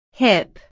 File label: "hip", inglés